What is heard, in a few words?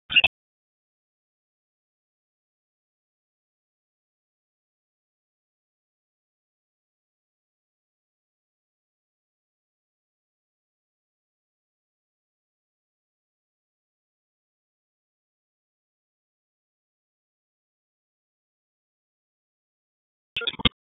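Police Northwest District Tac, radio; Raleigh Police Northwest District Tac 1; Wake; p25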